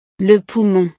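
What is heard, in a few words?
"le poumon", French; partes del cuerpo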